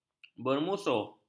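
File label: "bermusuh", Malay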